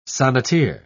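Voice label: "sonneteer", British English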